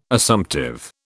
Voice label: "Assumptive", British